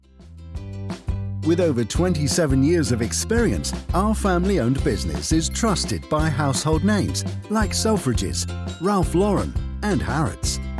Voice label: British Voiceover Explainer Animation